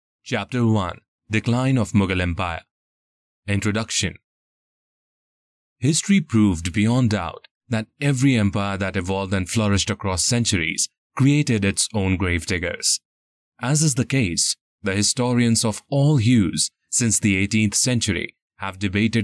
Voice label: Elearn English